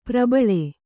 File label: "ಪ್ರಬಲಿ", kannada